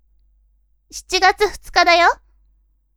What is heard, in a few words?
women